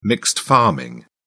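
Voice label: "mixed farming", British English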